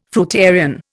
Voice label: "fruitarian", Indian